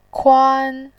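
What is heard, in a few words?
"kuan1", Mandarin Chinese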